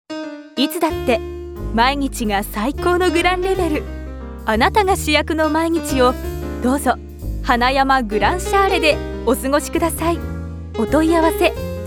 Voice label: mansion cm